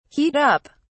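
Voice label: "heat up", British English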